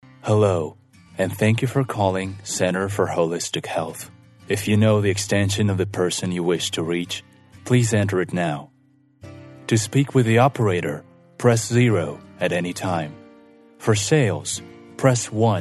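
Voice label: Ukrainian Russian, male, English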